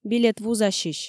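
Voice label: "билет вузасьысь" Komi, female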